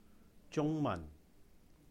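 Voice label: "中文", Cantonese; Chinese; Jūng-Màhn; zung1 man2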